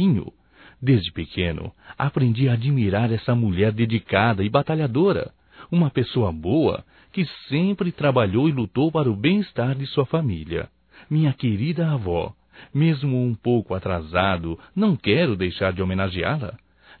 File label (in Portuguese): Aniversário Masculino, Telemensagens; Telemensagens 2017; Aniversarios